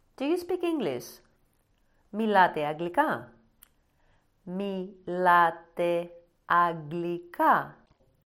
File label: DO U SPEAK ENGLISH, BASIC GREEK AUDIO; MILATE AGGLIKA